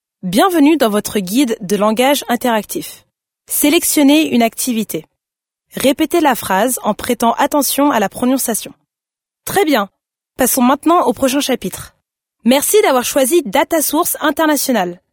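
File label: French female corporate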